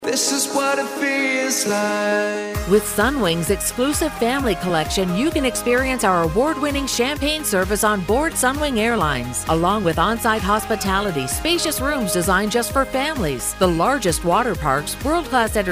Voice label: Commercial